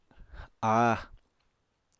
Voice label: "áa", Apatani